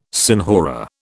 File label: "senhora", British